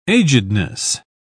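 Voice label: "agedness", British English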